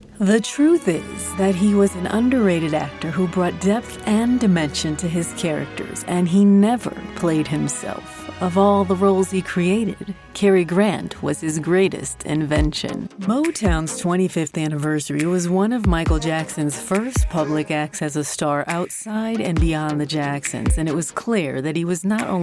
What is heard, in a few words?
VO Narration